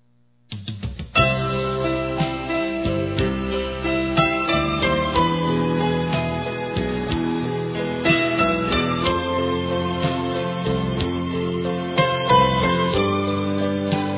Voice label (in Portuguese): Aniversário Cliente Feminino; Telemensagens; Empresarial; Telemensagens 2017